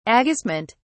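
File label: "agistment", British English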